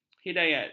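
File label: "hidayat", Malay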